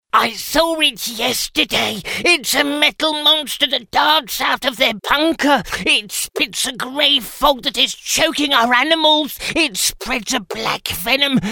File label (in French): Jeux video fantastic anglais